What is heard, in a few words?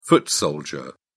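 "foot soldier", British English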